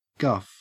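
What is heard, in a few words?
"guff" Australian English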